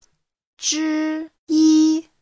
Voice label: "zhi1yi1", Chinese; một trong những